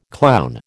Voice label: "clown", American

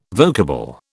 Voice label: "vocable", British

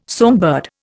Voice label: "songbird", Indian

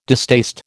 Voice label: "distaste", American

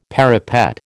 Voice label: "parapet", American

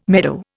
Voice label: "meadow", Indian

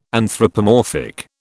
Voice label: "anthropomorphic", British

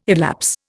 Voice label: "elapse", Indian

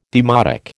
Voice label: "demotic", American

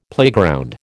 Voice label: "playground", American

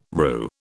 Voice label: "roux", British

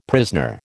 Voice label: "prisoner", American

